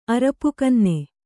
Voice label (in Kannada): "ಅರಪುಕನ್ನೆ", kannada